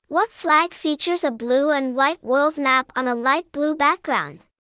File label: Female